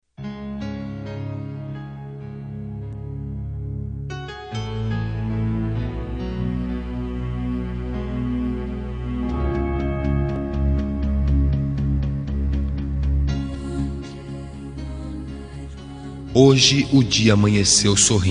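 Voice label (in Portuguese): religiosa masc, Telemensagens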